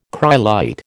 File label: "cryolite", American